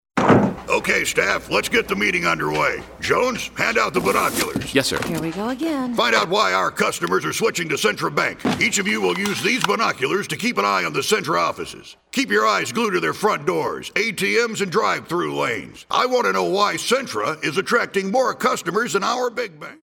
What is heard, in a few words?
coaches drill sergeants